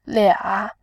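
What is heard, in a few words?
"lia", Mandarin Chinese, third tone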